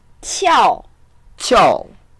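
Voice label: "qiao4", Mandarin Chinese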